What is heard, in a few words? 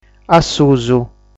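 "Assusu", Sardinian word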